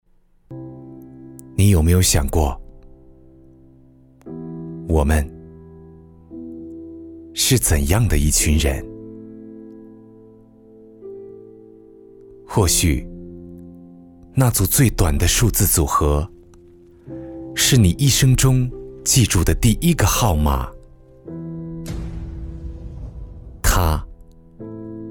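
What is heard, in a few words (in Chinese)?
温情宣传片; 江苏公安厅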